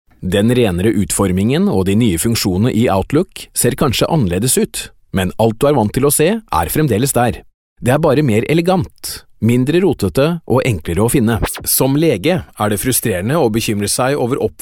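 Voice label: Norwegian, male, narration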